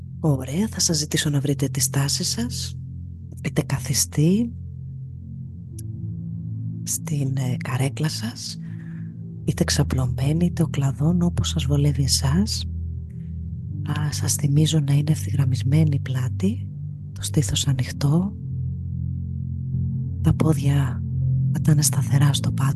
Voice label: Breath Ocean Meditation, Διαλογισμός του Ωκεανού με Διαφραγματική Αναπνοή; Διαλογισμός του Ωκεανού με Διαφραγματική Αναπνοή (14 λεπτά); LifeLab